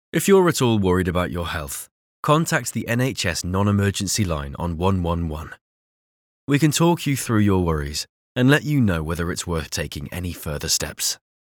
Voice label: Conversational

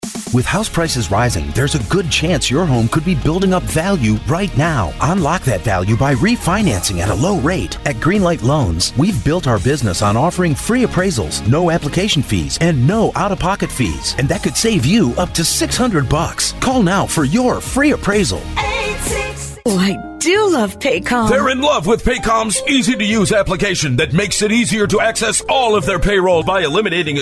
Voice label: TV-RADIO COMMERCIALS - VO

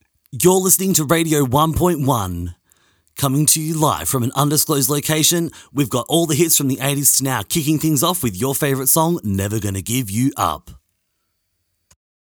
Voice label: radio intro

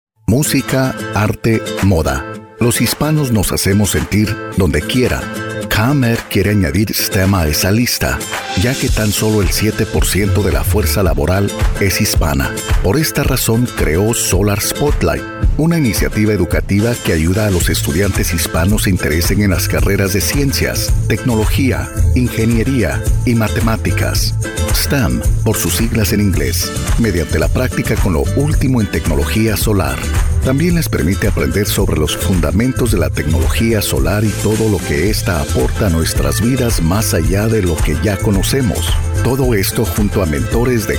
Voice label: Commercial Radio Campaign; English (North American)

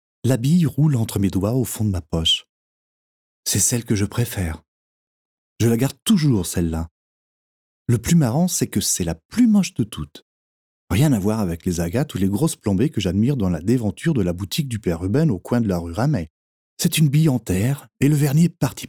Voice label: French Audiobook VO

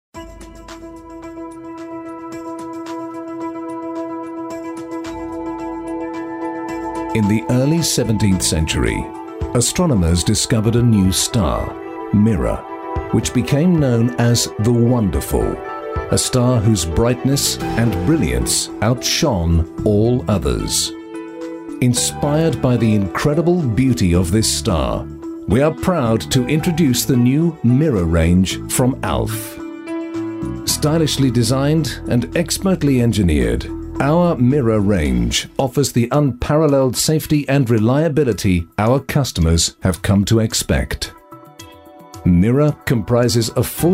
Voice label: Lighting narrative

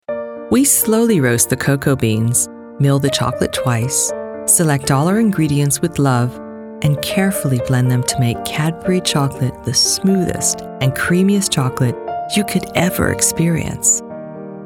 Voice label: Dramatic Reel

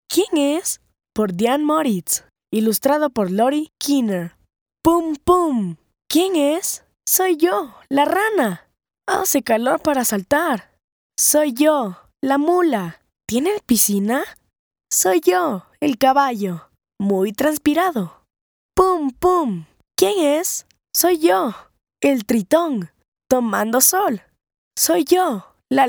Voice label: Audio Books

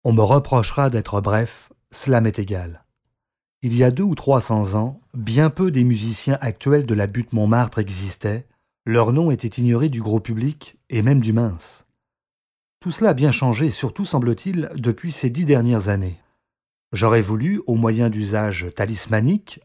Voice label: audiobook; les musiciens de montmatre by erik satie

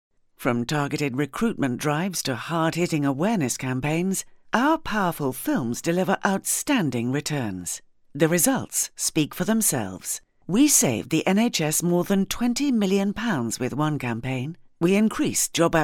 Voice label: Corporate samples

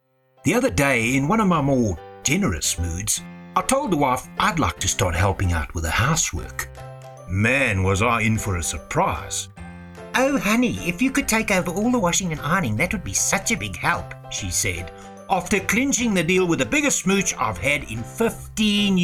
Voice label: Washpowder Enthusiastic

